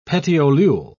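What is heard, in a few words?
"petiolule", British English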